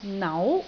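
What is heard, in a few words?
"nau2", Cantonese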